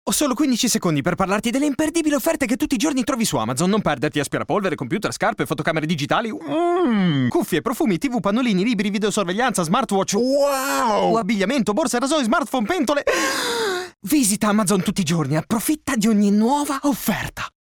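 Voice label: Italian, male; Amazon Week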